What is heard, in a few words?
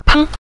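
"peng", Mandarin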